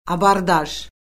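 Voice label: "abordaj", Azerbaijani; Orfoqrafiya Lüğəti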